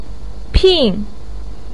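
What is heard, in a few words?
"pin", Mandarin pinyin, fourth tone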